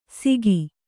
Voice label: "ಸಿಗಿ", kannada